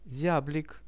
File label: "ziablik" Russian; зяблик; birds; Какая это птица?